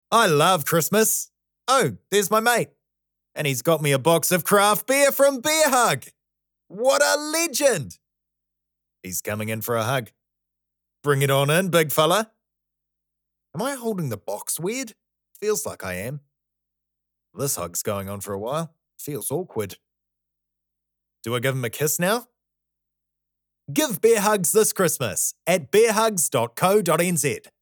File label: Narration Casual